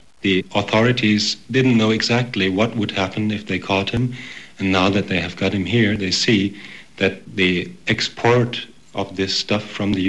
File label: ESL German, Non-Hispanic Non-Native Speakers, dialect